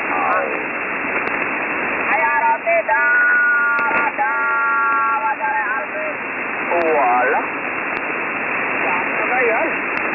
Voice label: Indonesian net on 10147 kHz LSB